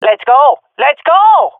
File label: round start, swat fem; vo; Counter Strike Model Pack; CS:GO